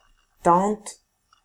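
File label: "tante", French; famille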